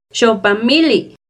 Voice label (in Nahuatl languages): "xopamilli", Náhuatl; NAHUATLAHTOLLI